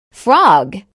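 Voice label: "frog", English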